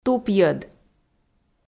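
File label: "тупйӧд", Komi, female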